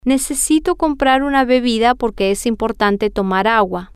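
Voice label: Spanish, female; i Need To Buy A Drink Because It Is Impo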